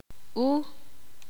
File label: ixil; aqu l aquella